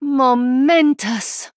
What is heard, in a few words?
Female Draenei Wow